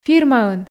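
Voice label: "фирмаын", Komi, female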